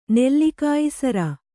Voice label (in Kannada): "ನೆಲ್ಲಿಕಾಯಿಸರ", Kannada